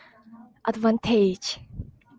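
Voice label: "advantage", Japanese, female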